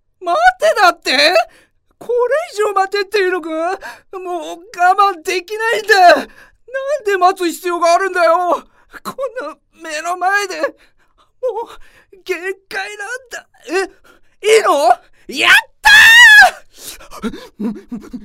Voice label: narration